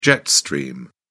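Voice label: "jet stream", British English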